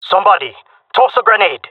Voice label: request grenade, male; player vo; Counter Strike Model Pack; CS:GO